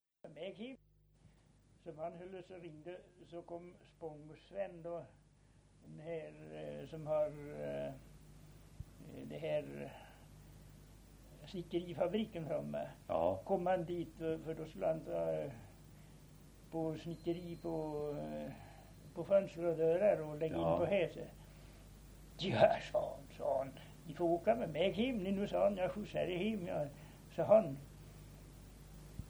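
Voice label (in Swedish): Årsunda Gästrikland, dialekter; Folkminnen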